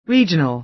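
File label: "regional", American English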